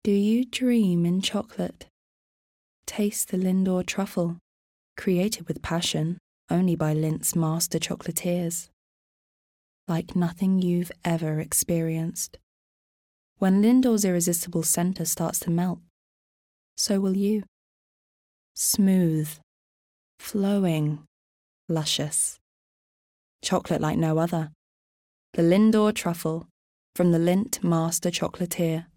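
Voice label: English UK, Commercial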